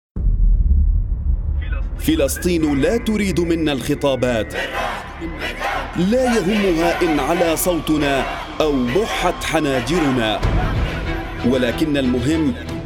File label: arabic political ads